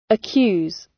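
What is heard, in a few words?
"accuse" British; Crime and punishment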